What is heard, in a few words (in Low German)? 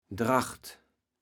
"Dracht", kaevels platt